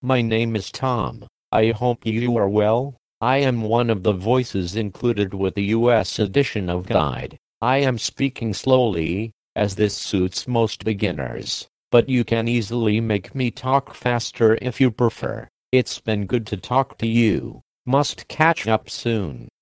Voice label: 85 Speed US